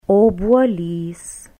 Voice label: "obuolys", lithuanian

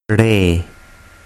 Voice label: "Re", Urdu

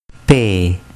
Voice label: "pe", Urdu

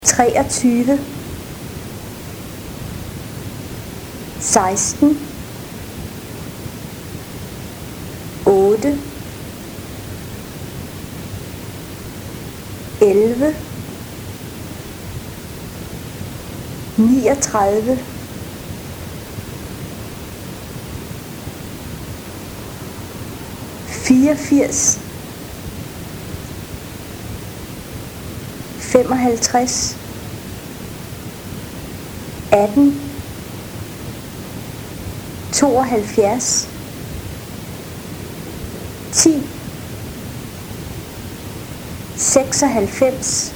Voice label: selected nums, danish

